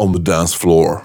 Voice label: Phrase Pitched; Vengeance Samples